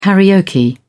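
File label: "karaoke", British English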